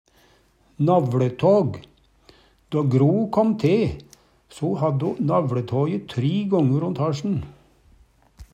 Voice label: navLetag, Numedalsmål; Substantiv hokjønn

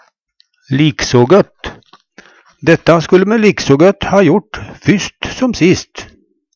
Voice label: lik so gott, Numedalsmål

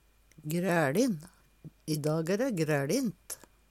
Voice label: graelin, Numedalsmål; Adjektiv

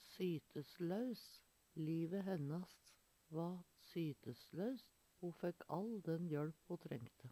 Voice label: sytelous, Numedalsmål; Adjektiv

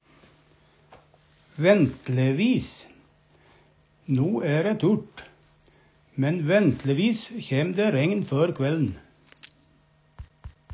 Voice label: "ventlevis", Numedalsmål; Uvdal

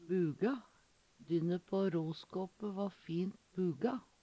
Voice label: "buga", Numedalsmål

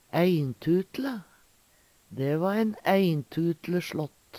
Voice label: "eintutle", Numedalsmål; Dæ va ein eintutle sLått.

